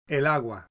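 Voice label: "water", spanish; MEXSPAN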